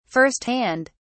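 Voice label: "first hand", British English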